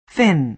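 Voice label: "fin", American English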